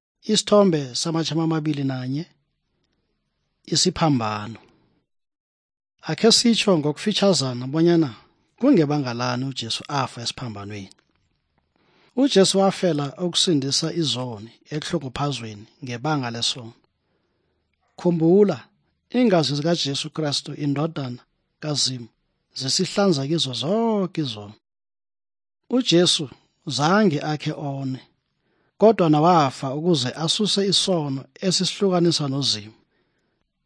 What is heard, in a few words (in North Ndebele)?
good news ndebele southern, drama